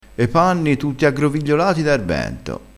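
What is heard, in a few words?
"aggrovigliolato", Italian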